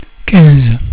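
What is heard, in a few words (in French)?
"quinze", French; cat numeration doigts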